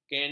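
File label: "kenek", Malay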